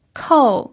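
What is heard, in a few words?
"kou" fourth tone, pinyin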